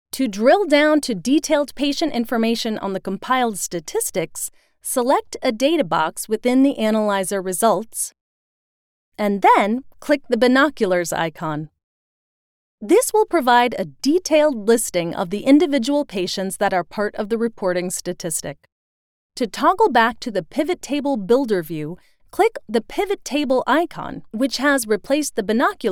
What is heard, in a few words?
Follow Along Explainers Voice Sample; English (North American)